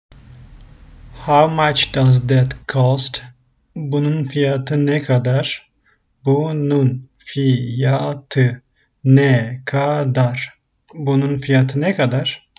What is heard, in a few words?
how much does that cost, Turkish phrasebook; the talking turkish phrasebook